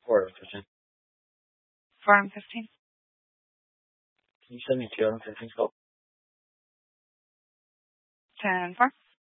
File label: Mesa PD Dispatch